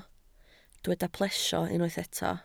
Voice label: "plesio", Welsh